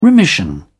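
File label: "remission", British English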